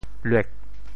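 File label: "luek4", Teochew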